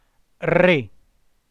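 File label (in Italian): "re" Italian word, voce; chiuso, acuto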